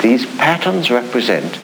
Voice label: LoFi Phrases, LoFi Vocals; Vengeance Samples